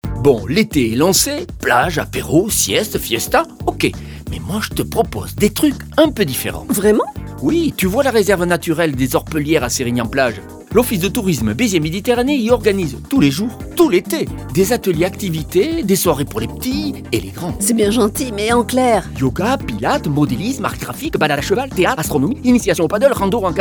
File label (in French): spot radio; beziers orpellieres reserve naturelle